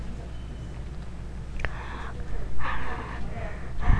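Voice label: "lute", spoken word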